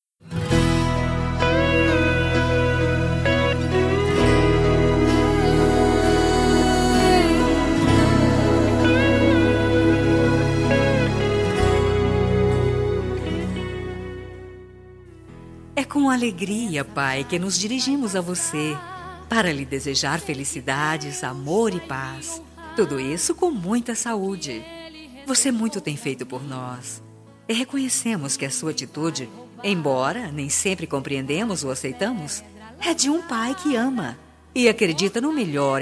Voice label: PAI FEM; Aniversários

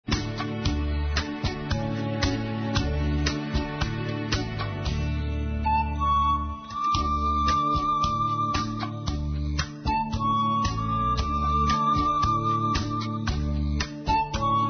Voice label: ANIVERSÁRIO (NÃO PODEMOS COMPARECER) M

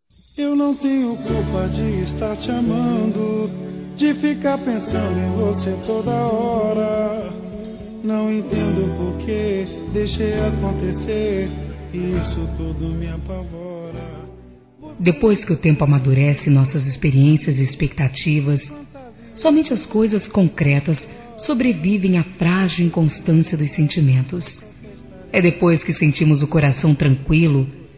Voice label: ROM. MADURA, F

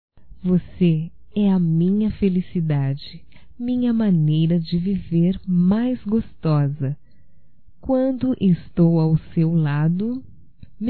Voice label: SENSUAL (F); Românticas

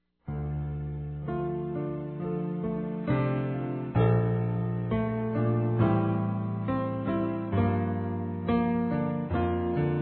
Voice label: ACEITO SUAS DESCULPAS, masc; Telemensagens 2017

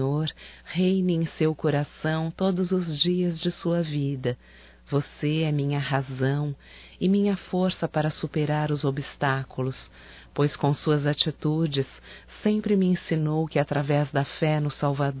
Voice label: ANO NOVO ROMANTICO, fem; Natal e ano novo; Telemensagens 2017

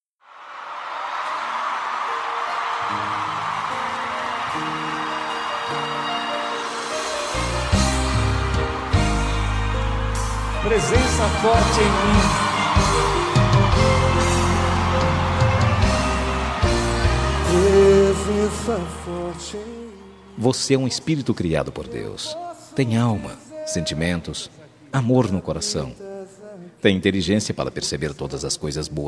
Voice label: MENSAGEM DE OTIMISMO MASC; FELICITAÇOES

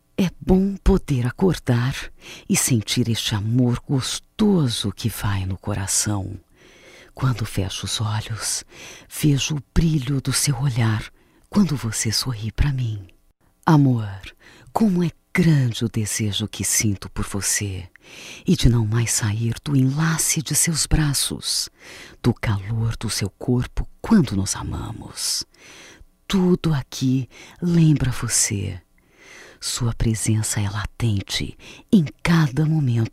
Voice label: Fogo & Paixão Feminino; Telemensagens 2017; MOMENTOS DE AMOR

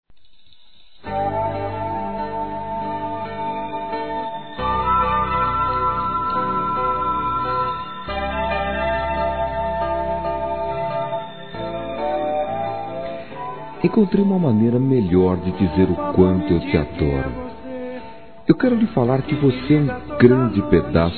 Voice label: Aniversário Sobrinha Masculino; Aniv Familiar

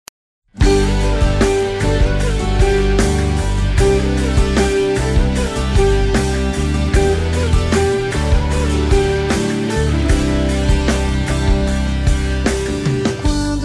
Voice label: Amizade Geral Feminino

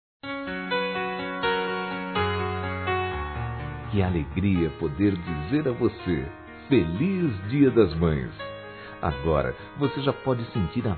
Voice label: PARA GRÁVIDA M; Dia das Mães; Masc; Telemensagens 2017

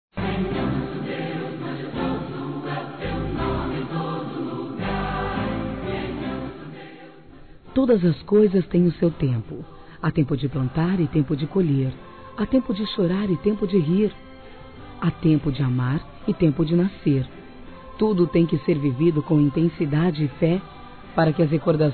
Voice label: GESTANTE, Gospel, fem; Telemensagens; Telemensagens 2017

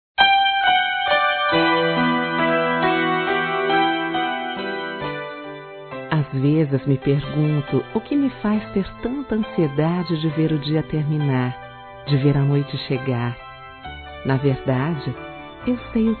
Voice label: Romantica Sedução União Ao Corpo Feminino, Telemensagens

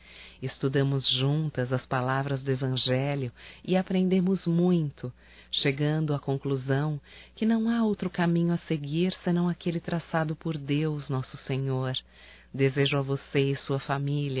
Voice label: Natal e Ano Novo Irmã em Cristo Feminino; Telemensagens 2017

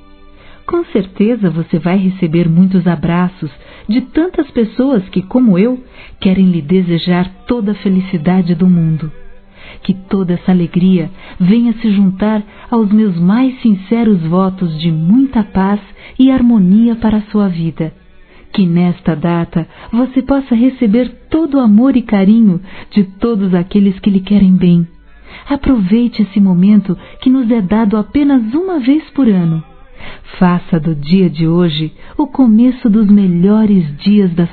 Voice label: ANIV. NEUTRO F; Aniversários; Aniversários diversos; fem

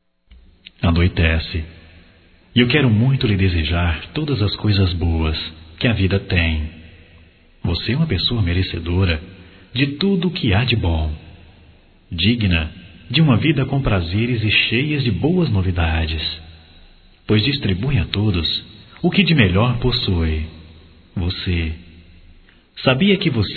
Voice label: Boa Noite Masculino; Bom dia, tarde e noite; Telemensagens 2017

